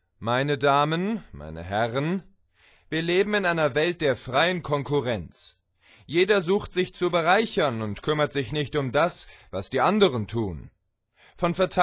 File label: engels speech